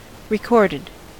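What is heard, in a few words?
"recorded", American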